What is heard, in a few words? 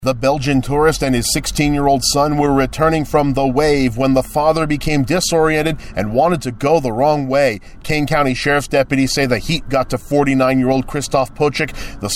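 voice public service announcement; WAVE DEATH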